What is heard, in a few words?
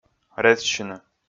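"резсьыны", Komi, male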